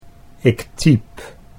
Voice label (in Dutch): ik typ, Dutch